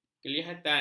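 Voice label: "kelihatan", Malay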